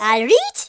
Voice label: "hello", Geordie; speech